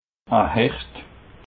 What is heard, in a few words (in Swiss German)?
"hecht", alsace